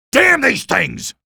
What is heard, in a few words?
alert antlions, male; hl2; ep1